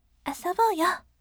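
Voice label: "asobouyo", gentle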